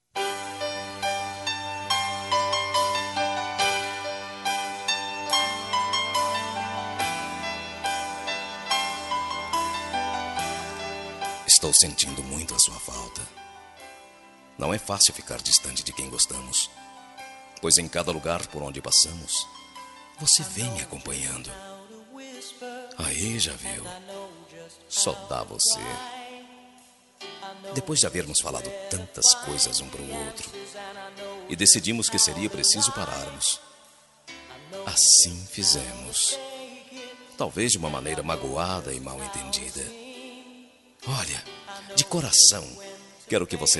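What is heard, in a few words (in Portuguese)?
reconcilia masc, Telemensagens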